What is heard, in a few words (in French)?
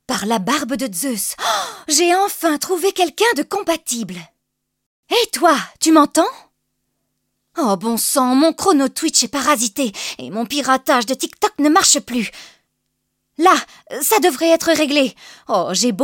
voix scientifique fou, doublage; comminges